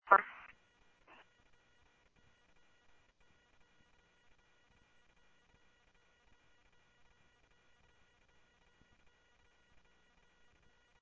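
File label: police department, scanner audio trunk; H-Scottsdale; SCTSDLE PD - PD2 ScottsdalePolice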